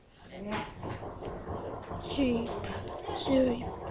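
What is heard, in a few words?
"zip", spoken word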